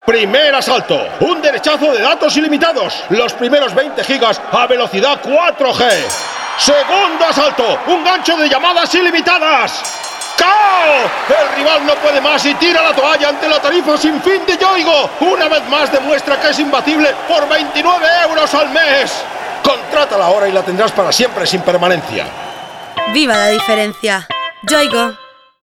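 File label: Spanish European Commentary; GoLocalise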